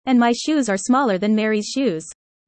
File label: user fast; how tall